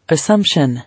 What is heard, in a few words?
"Assumption", English